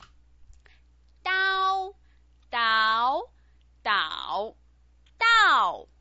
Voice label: "dao", Chinese pinyin